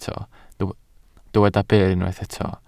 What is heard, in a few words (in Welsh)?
"byr", Welsh